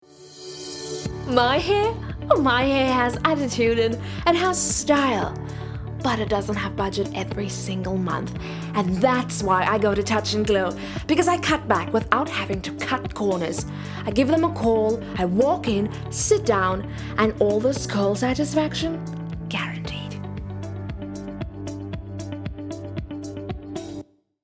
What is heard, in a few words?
Voice Over Hair